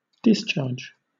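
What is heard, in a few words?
"discharge", British English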